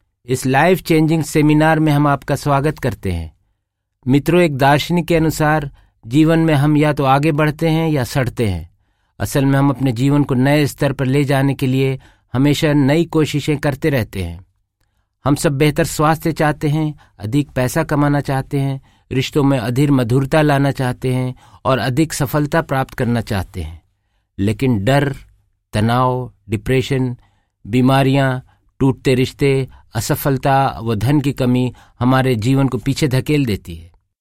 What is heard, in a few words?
Hindi, male voice